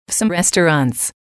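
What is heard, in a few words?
"some restaurants", English, female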